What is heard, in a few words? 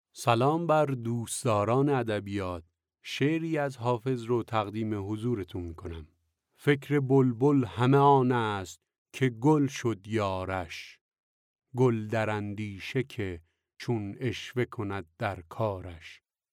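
Farsi, Iran, male, voice over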